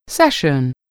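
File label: "session", English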